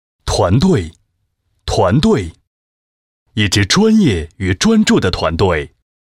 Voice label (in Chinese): 男, 工作汇报; 玉林供电局主网调度班